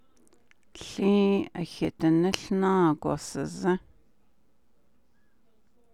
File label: navajo; The horses stand facing in opposite directions